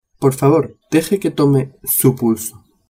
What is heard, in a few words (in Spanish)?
"tome su pulso", spanish